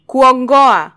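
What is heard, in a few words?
"kuongoa", Kenyan Swahili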